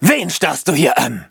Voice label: loading, de; cookie run